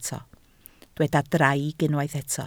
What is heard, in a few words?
"draig", Welsh